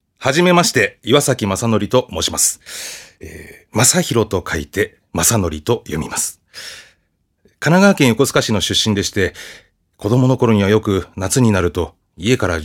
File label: freetalk, male voice